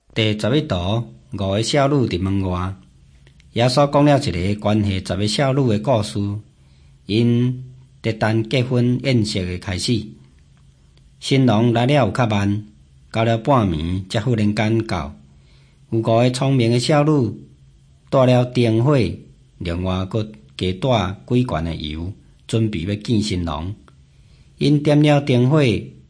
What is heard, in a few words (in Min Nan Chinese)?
taiwanese, drama